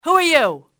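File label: who are you, enemy, english, female; XBOX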